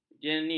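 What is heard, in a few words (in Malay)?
"jeni", Malay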